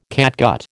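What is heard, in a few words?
"catgut", American English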